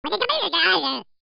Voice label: Angry guard